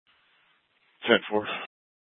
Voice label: Police South Dispatch; Scottsdale Police